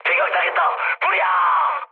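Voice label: Korean on fire mood high; War Thunder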